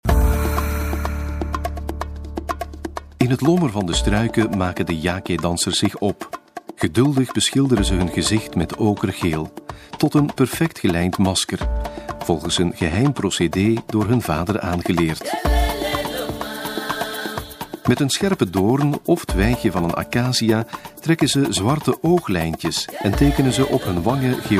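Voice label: flemish male narration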